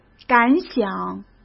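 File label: "感想" Mandarin Chinese; chinese-flashcards; hsk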